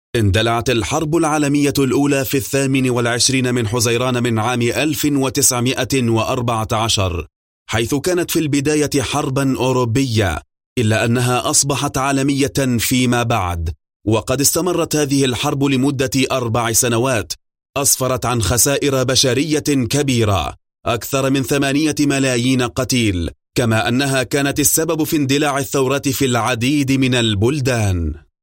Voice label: arabic documentaries